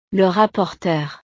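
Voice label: "le rapporteur", French; utiles escolares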